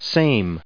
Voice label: "same", us